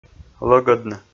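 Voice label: "лӧгӧдны", Komi, male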